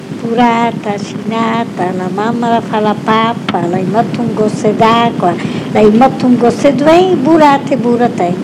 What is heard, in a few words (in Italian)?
filastrocca; burata sinata